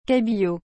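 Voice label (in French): "cabillaud", French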